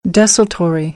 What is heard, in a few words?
"desultory", American; ɔː - ə